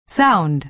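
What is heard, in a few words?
"sound", American English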